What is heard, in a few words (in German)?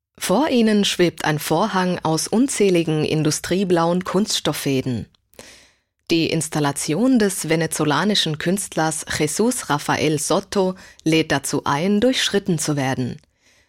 audioguide negativer raum